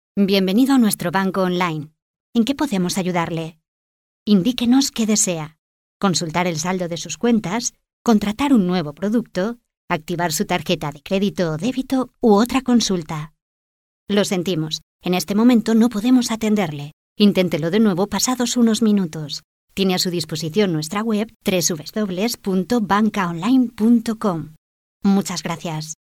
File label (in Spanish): IVR BANCA